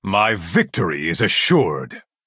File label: male taunt; Breton